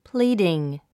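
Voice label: "pleading", English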